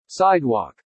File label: "sidewalk", English